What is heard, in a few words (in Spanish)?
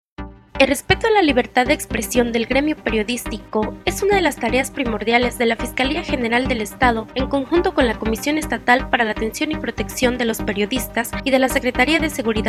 SPOT ESPAÑOL PERIODISTAS